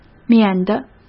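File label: "免得", Mandarin Chinese; hsk; chinese-flashcards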